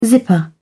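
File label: "zipper", British English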